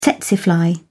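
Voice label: "tsetse fly", British English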